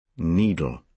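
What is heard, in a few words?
"needle", British English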